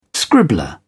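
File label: "scribbler", British English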